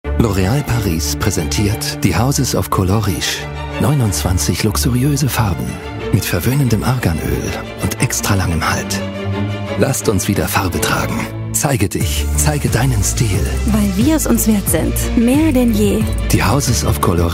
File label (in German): DE Werbung; german voice actor; L'OREAL Paris